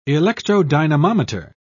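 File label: "electrodynamometer", British English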